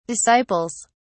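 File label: "disciples", English, British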